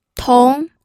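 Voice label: "tong2", Mandarin Chinese pinyin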